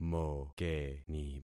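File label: "mokeni", concatenated syllables; Affixing experiment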